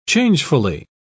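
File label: "changefully", British English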